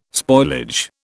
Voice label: "spoilage", British